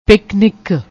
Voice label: "picnik", Punjabi

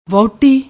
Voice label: "vehoti", Punjabi

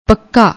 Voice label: "paka", Punjabi

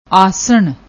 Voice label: "aasan", Punjabi

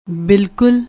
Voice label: "bilkul", Punjabi

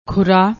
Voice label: "khuraa", Punjabi

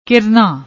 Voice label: "kirna", Punjabi; ਕਿਰਨਾ

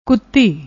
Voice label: "kutti", Punjabi; ਕੁੱਤੀ

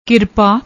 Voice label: "kirpa", Punjabi; ਕਿਰਪਾ

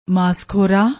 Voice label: "masskhorra", Punjabi; ਮਾਸਖੋਰਾ

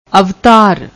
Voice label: "awataar", Punjabi

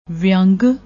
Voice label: "vehang", Punjabi

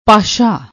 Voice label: "pashaa", Punjabi

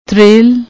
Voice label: "trel", Punjabi

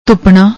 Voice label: "dhupnna", Punjabi

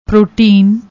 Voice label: "proutine", Punjabi

